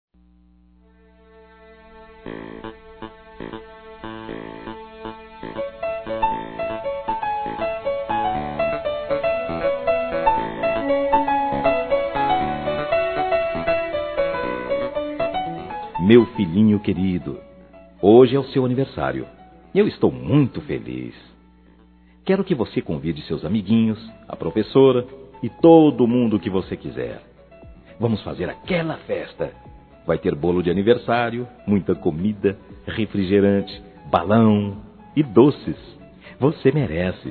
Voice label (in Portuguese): Filho Criança Masculino; Aniversários; Aniv Familiar